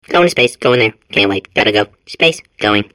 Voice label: babble; portal2